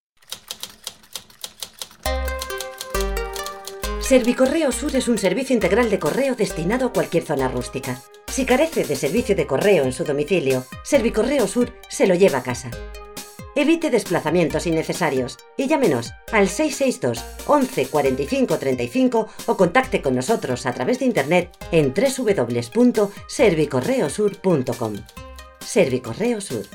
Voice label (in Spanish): Ejemplos de cuña Publicitaria